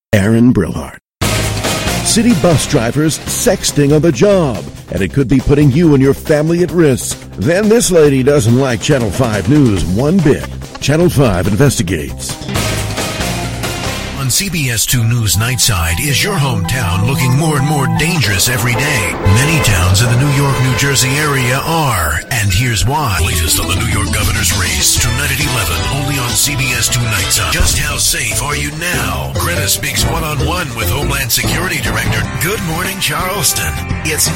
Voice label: Promo